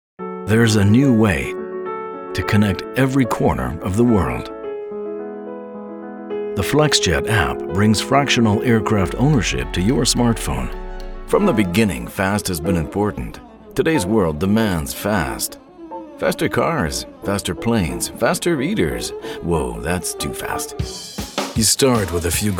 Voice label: commercial short